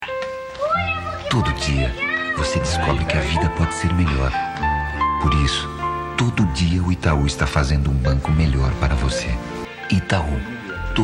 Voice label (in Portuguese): Suave Emotivo